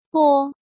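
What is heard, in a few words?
"Bō", Chinese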